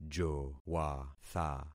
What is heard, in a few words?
"jowatha", concat syllables; Affixing experiment